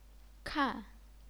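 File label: "kan", Chinese, fourth tone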